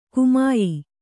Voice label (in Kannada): "ಗಮ್ಯ" Kannada